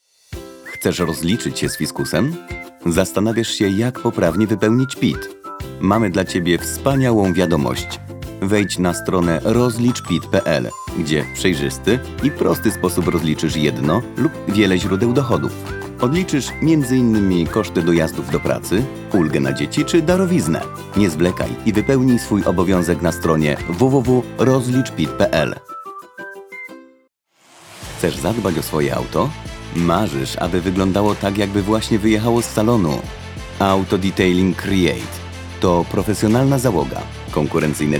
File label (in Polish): Lektor; Studio Nonagram